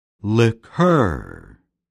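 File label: "liqueur", English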